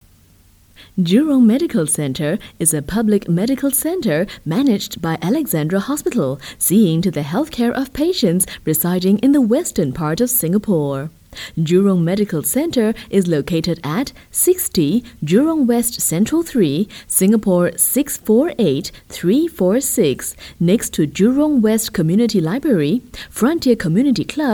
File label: Asian English Corp; AlexHosp